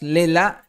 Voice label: "LELA'", Maya; INALI

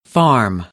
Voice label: "farm", American; English

